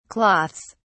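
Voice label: "cloths", British English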